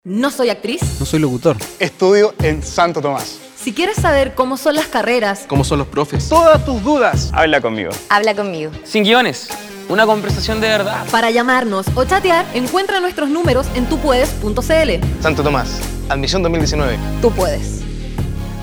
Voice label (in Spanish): Comercial Habla Conmigo Actriz; SANTO TOMAS